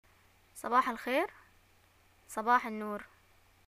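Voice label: "greeting morning", Yemeni Arabic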